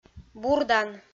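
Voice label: "бурдан", Komi, female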